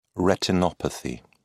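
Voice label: "retinopathy", British English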